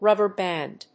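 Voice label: "rubber band", English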